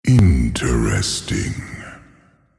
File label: male beast thinking